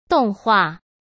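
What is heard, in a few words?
"动画", Mandarin Chinese; hsk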